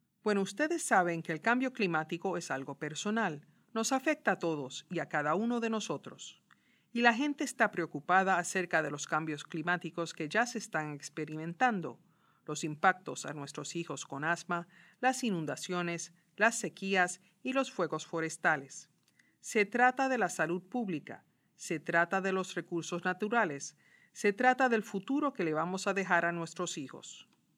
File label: spanish answer